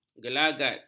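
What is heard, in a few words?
"gelagat", Malay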